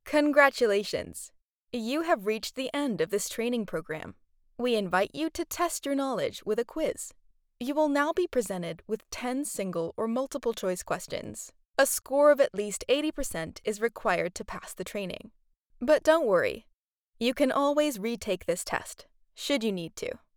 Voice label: english american e-learning